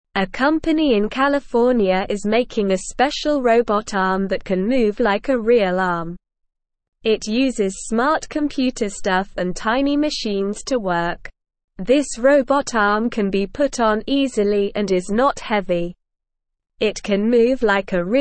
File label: English Beginner SLOW Reading; English Newsroom; Special Robot Arm Moves like a Real Arm